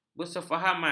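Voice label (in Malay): "bersefahaman", Malay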